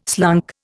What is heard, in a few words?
"slunk", Indian